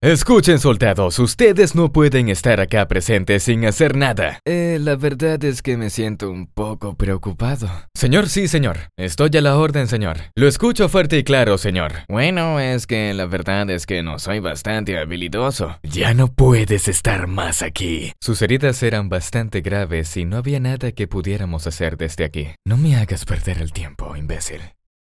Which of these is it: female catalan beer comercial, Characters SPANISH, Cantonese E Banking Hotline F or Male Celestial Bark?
Characters SPANISH